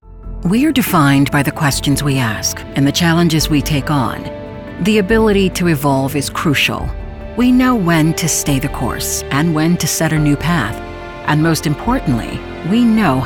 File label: CORP NARRATION